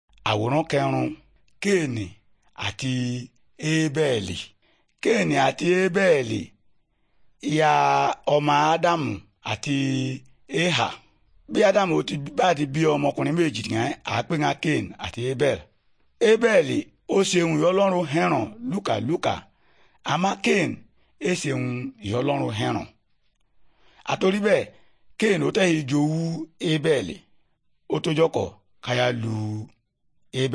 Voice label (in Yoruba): good news yoruba, bible